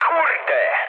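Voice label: trick mood low, speech Polish; War Thunder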